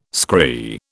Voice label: "scry", British